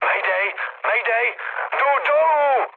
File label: aircraft destroyed, speech cze, mood low; War Thunder